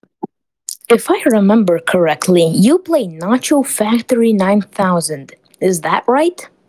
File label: voice message